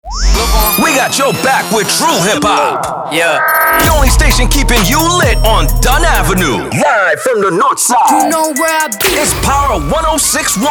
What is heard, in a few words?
URBAN HIP HOP